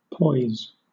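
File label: "poise", British English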